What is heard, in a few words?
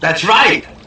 that's right male; Voice